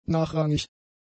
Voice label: "nachrangig", German; adjectives